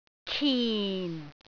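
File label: "kin4", Cantonese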